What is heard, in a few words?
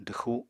"dwxu", Apatani